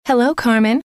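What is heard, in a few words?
hello, female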